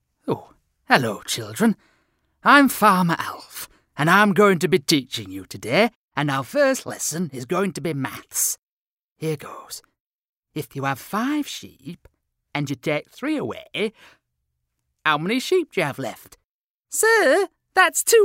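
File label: Characters - regional UK characters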